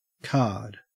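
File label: "card" English, Australian accent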